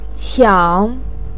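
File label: "qiang3", Mandarin pinyin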